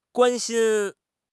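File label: "guan1 xin1", Chinese; HSK; HSK3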